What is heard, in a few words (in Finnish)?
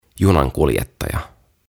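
"Junankuljettaja", suomeksi; Train Driver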